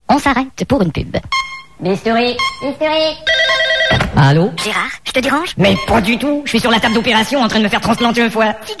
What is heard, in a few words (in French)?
articles de sport, pub